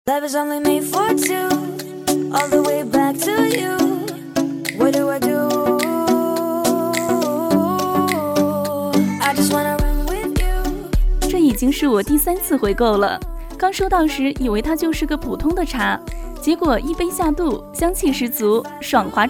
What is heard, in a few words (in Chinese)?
抖音产品介绍 人参枸杞茶